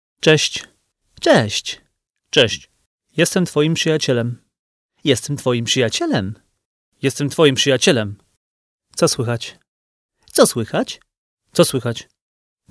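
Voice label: Polish man 36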